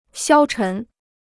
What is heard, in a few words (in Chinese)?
"消沉", Chinese